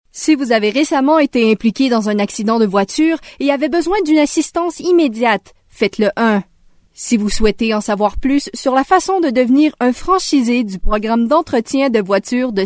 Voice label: Voice Prompt French